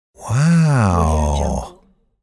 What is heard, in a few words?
male voice long wow